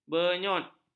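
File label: "benyut", Malay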